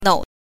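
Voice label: "nou", Mandarin Chinese pinyin, neutral tone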